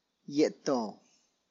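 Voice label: tlacochahuaya, Zapotec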